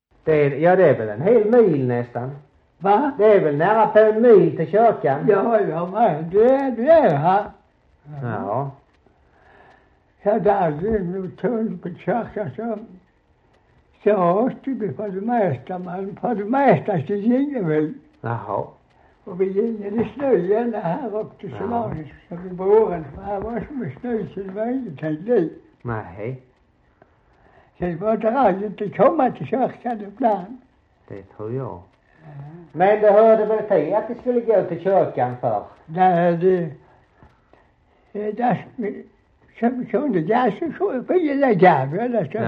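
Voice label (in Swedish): Slättåkra Halland, Svenska sagor, dialekter; Folkminnen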